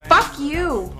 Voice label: "fuck you" female; Voice; expletives